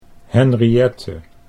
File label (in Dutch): "Henriette", Dutch